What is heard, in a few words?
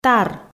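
"тар", Komi, female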